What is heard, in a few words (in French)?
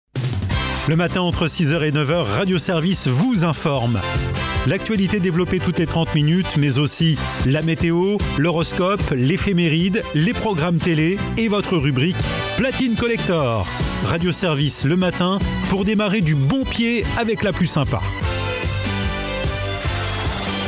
promo voix off; radio